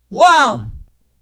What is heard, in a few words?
someone saying yahtzee fr